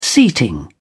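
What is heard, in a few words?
"seating", British English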